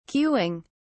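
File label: "queuing", British English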